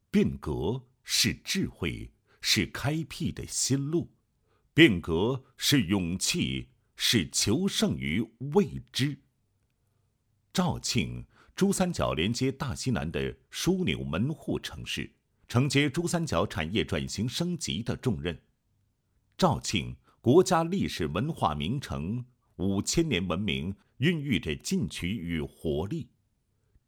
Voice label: 男 国企改革 输电管理所组工作创新配音; 肇庆局

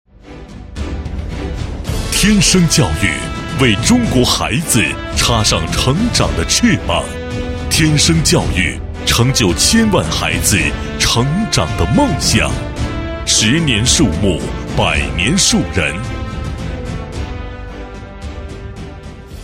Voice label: 男, 品牌广告; 天声教育

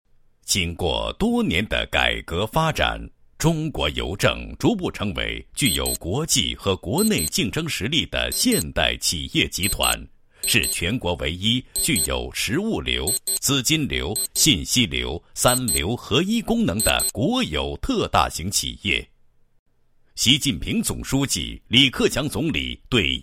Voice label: 国语男声配音 宣传广告; 江西邮政宣传

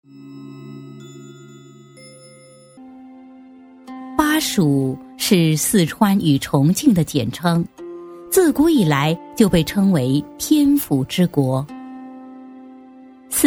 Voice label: 专题 厚重大气 配乐, 国语女声配音; 成熟专题; 人文西蜀

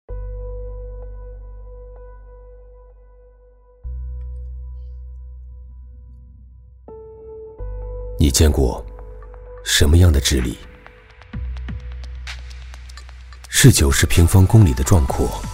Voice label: 男 片头片花 质感讲述; 织里

